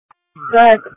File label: EMS Dispatch